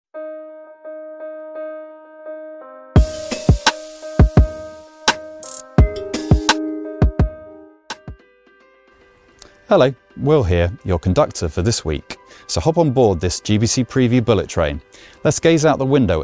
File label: Radio Presenting Voiceovers Audio Showreel; GBC